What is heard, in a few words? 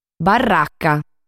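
"Barraca", Sardinian word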